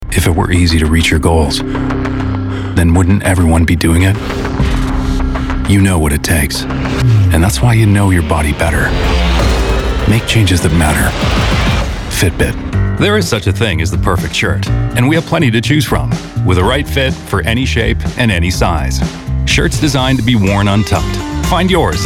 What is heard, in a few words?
Commercial; TaDa